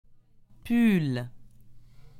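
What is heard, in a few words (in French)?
"pull", French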